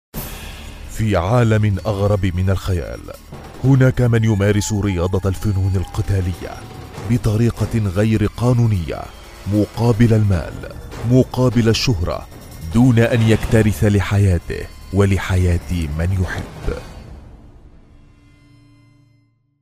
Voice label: arabic corporate

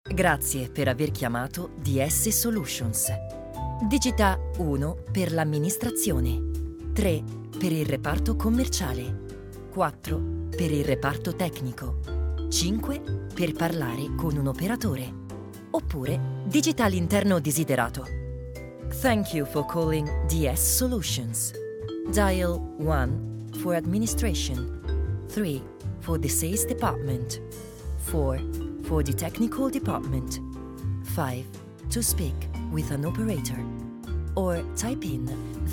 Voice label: italian ivr, italian voice actors

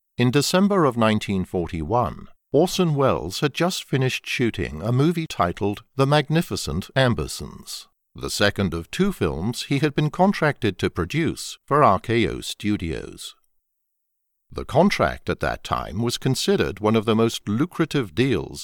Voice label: english british documentaries

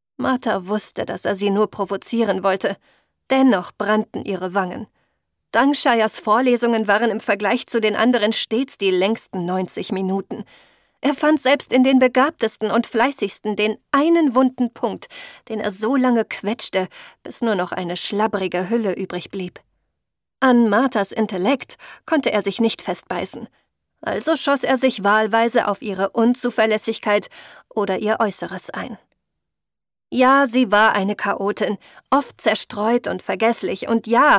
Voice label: german narration

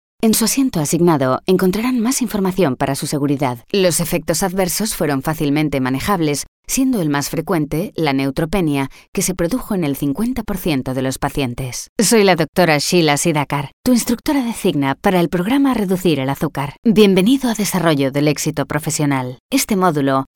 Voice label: spanish castilian corporate

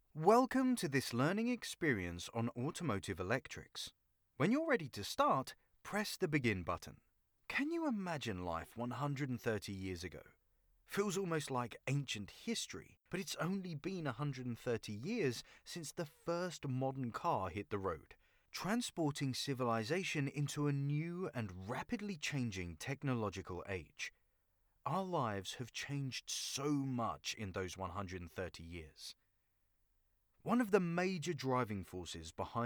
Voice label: english british commercial

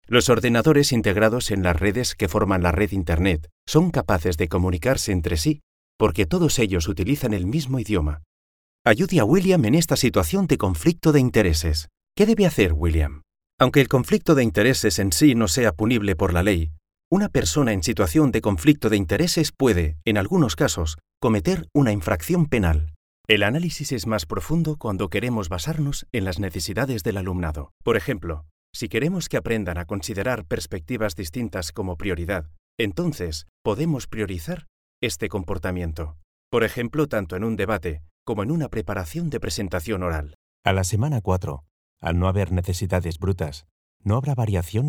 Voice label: spanish e-learning, spanish voice actors

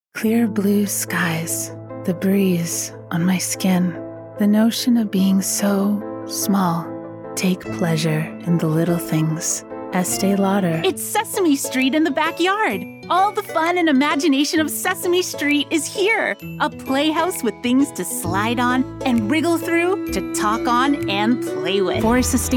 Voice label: english canadian commercial

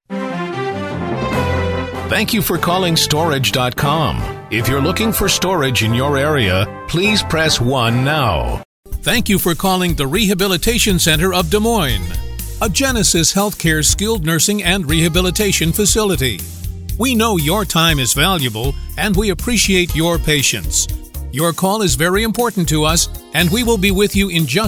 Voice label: english american ivr

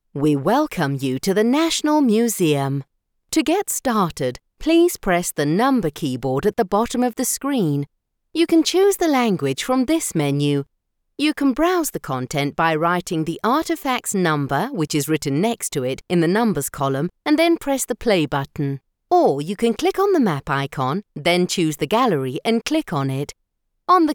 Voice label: english british audio guides; professionelle englische Synchronsprecherin

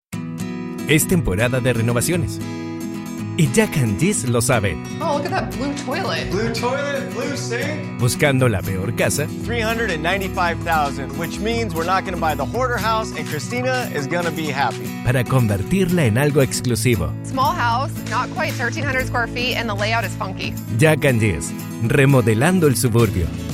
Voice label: spanish latin american tv shows

